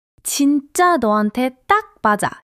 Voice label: joy; monologue